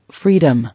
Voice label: "Freedom", English

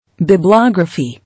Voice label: "Biblography", English

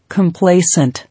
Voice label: "Complacent", English

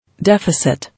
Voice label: "Deficit", English

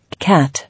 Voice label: "Cat", English

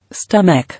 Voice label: "Stomach", English

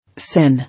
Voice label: "Sin", English

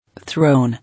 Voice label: "Throne", English

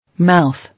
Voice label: "Mouth", English

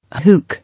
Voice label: "Hook", English

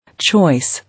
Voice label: "Choice", English

